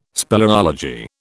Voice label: "speleology", British

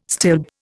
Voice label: "stilb", Indian

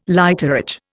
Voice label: "lighterage", Indian

